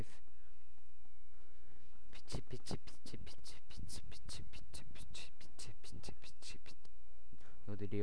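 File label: "pitch", spoken word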